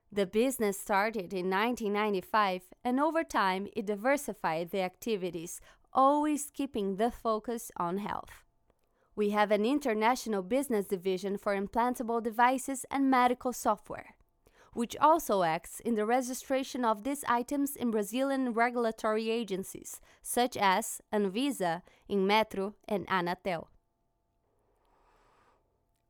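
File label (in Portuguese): locução inglês informativo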